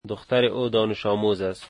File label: her daughter is a student, Dari